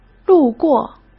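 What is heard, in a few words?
"路过", Mandarin Chinese; hsk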